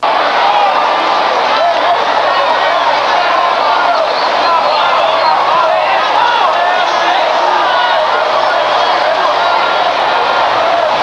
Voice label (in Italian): persone chiacchiere